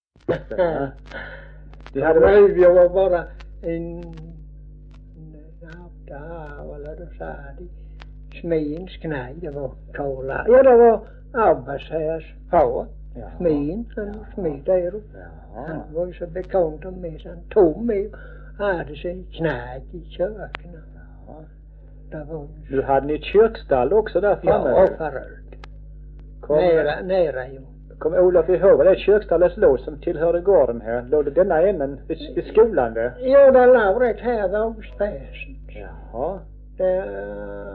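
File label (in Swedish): Örkened Skåne, svenska sagor dialekter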